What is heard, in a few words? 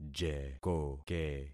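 "jekoke", concatenated syllables; Affixing experiment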